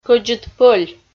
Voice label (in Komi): "кӧдзыд пӧль" Komi